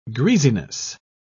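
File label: "greasiness", British English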